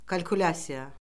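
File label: "kalkulyasiya", Azerbaijani; Orfoqrafiya Lüğəti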